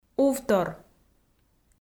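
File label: "увтор", Komi, female; audio dict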